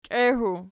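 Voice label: "kiehu" nominative, Archi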